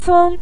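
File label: "ceng" neutral tone, Mandarin Chinese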